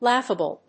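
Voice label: "laughable", English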